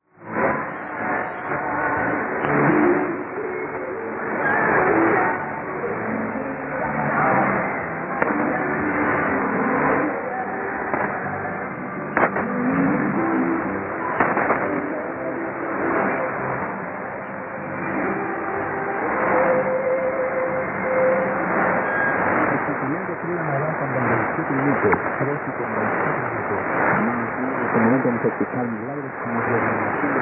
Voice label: 6019.3kHz; ペルー; Radio Victoria

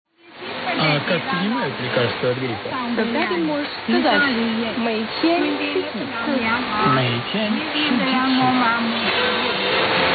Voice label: 5915kHz Myanmar Radio

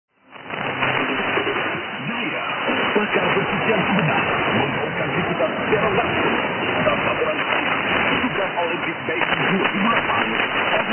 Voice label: radio television malaysia, 5964.9k

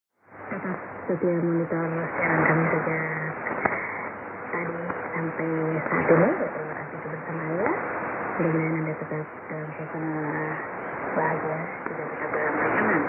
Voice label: 6125.5kHz; RRI-Nabire

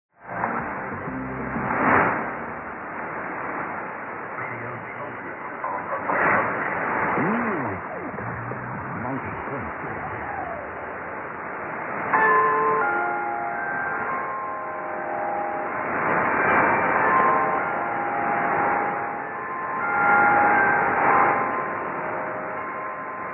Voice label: 15140kHz Radio Sultanate of Oman

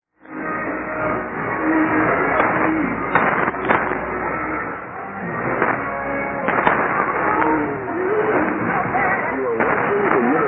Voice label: 6090kHz; アンギラ島; Caribbean Beacon

